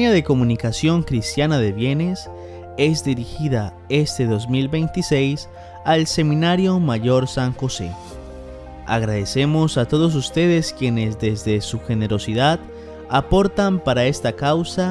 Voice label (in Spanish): Promo CB Seminarista; campana de comunicacion cristiana de bienes 2026